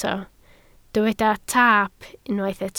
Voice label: "taap", Welsh